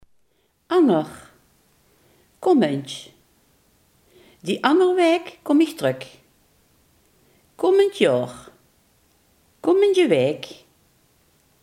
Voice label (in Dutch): "komend", Limburgs